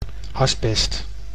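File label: "asbest", Dutch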